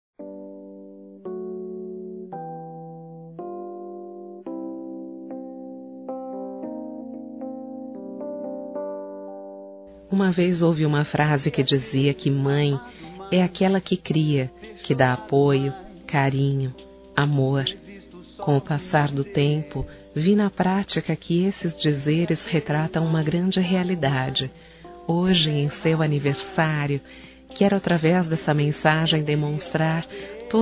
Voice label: MADRASTA F, Telemensagens, Aniversários, Fem; Aniv Familiar

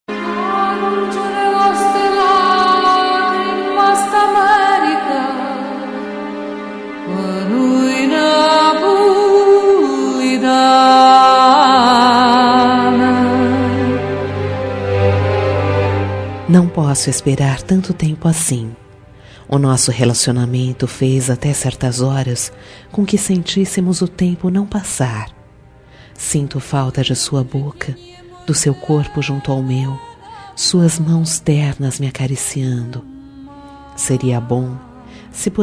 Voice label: ROM INTENSA EMOCAO FEM

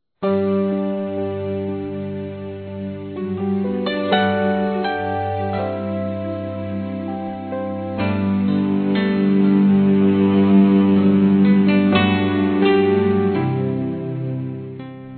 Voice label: Natal Irmã Masculino; Telemensagens; Natal e Ano novo

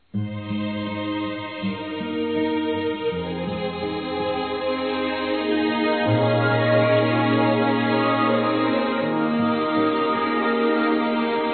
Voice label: Aniversário Romântico Suave Feminino; Aniversários

